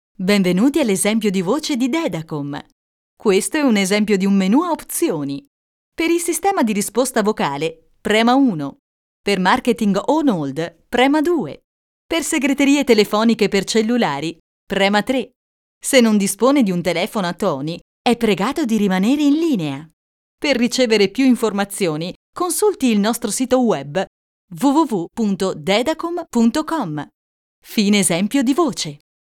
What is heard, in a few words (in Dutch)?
Voice over Italiaans vrouw